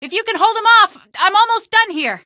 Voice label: female; hl2; streetwar; tunnel; Source engine